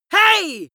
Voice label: angry "hey" male